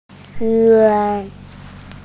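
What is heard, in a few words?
"juan1", Mandarin Chinese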